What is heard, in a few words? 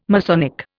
"Masonic", Indian